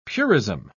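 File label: "purism", British English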